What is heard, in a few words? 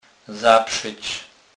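"zaprzec", Kurpie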